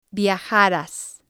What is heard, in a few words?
"viajaras", Spanish